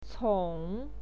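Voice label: "cong", Mandarin Chinese, third tone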